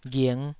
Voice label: "ghing5", taiwanese syllable